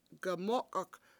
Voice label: "gaMO7ok$", Washo; hook

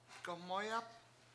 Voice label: run by, Washo; gaMOOyap

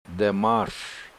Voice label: "da7mAAS", Washo; his pine nut territory

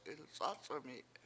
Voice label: "ilc7Ac7ami7", Washo; moldy

